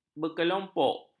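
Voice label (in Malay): "berkelompok", Malay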